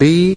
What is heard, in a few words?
"b", male British